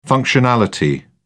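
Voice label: "functionality", British English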